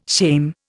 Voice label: "shame", Indian